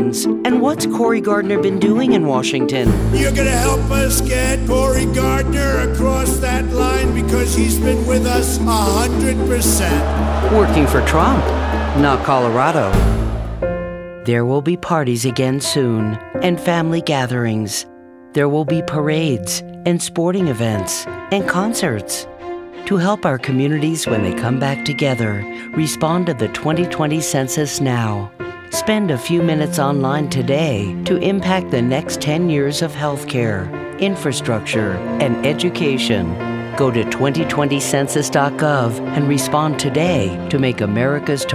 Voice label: political women

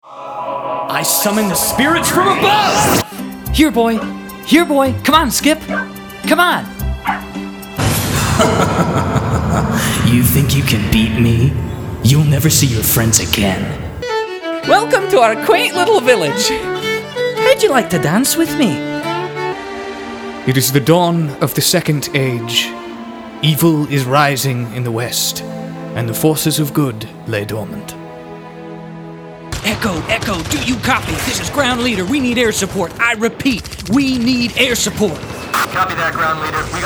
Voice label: animation

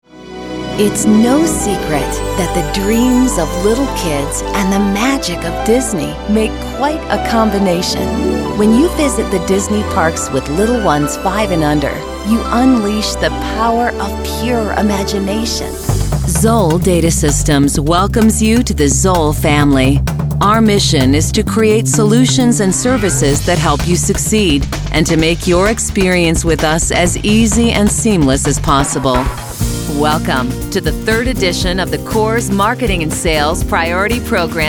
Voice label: narration

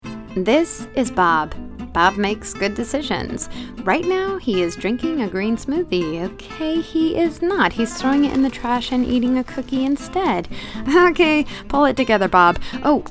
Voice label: commercials women

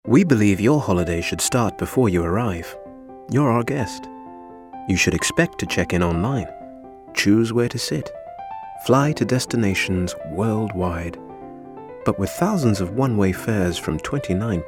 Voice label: languages british

